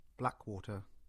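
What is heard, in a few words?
"Blackwater", English; place-names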